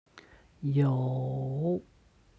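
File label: "you", Chinese; 有; ある/いる; HSK Level One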